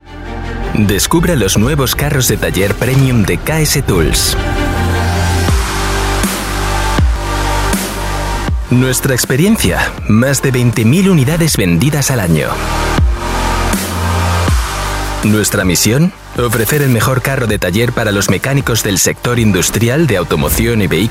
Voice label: corporate